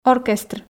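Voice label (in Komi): "оркестр", Komi, female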